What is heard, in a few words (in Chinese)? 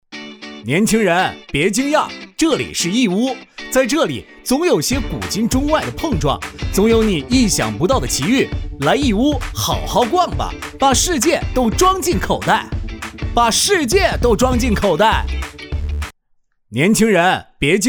男 活力 城市; 义乌